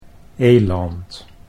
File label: "eland", Dutch